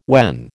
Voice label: "when", American